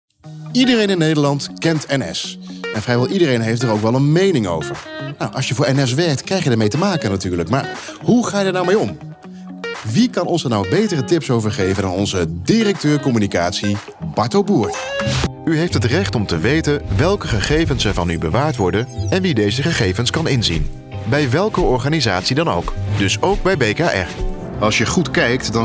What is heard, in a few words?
presenter